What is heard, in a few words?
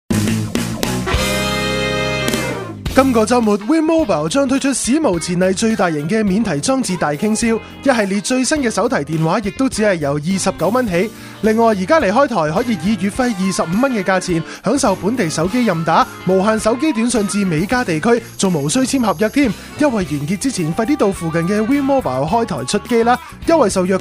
spring blowout sale, Chinese; WIND Mobile